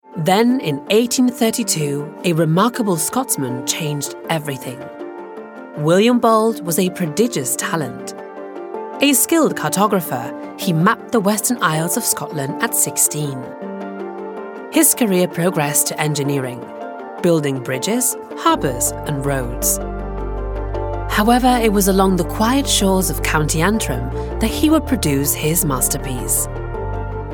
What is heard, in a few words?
Documentary